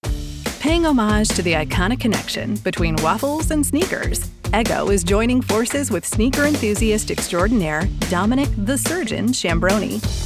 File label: Corporate Narration